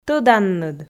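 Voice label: "тӧданныд", Komi, female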